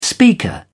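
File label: "speaker", British English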